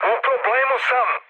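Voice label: Serbian aircraft damaged mood med, speech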